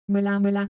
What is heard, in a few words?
Ewe, ideophones; Color Visual